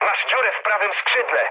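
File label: Polish right wing mood medium; speech; War Thunder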